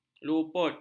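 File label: "luput", Malay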